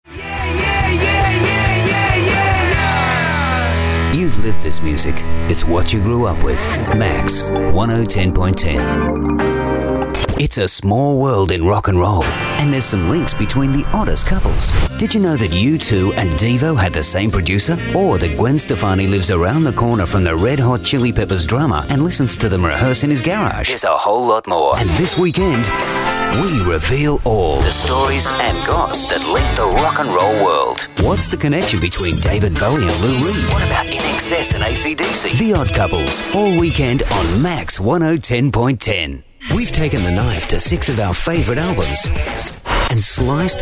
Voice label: radio promos